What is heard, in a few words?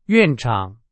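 Chinese, vocabulary; hsk2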